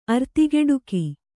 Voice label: "ಅರ್ತಿಗೆಡುಕಿ", Kannada